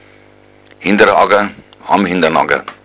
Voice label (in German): hinterer, bayerischen Dialekt; Flurnamen